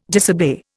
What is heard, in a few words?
"disobey", Indian